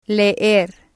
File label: "leer", spanish; to Read